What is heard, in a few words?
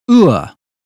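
"pure", British English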